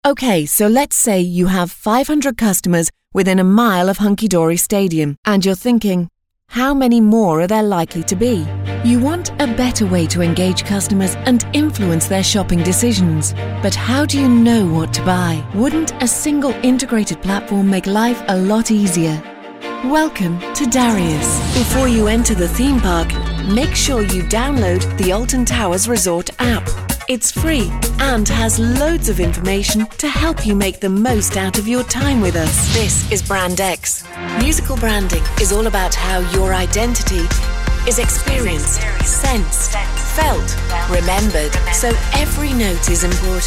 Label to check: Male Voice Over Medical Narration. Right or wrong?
Wrong